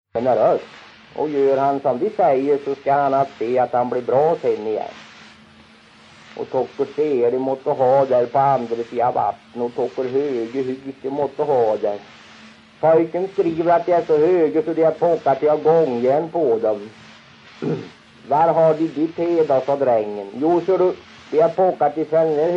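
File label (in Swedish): Kroppa Värmland, svenska sagor dialekter; Folkminnen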